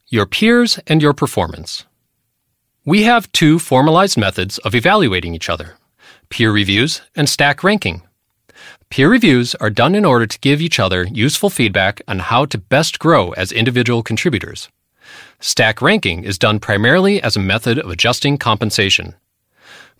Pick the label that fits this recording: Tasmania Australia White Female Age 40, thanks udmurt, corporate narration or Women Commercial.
corporate narration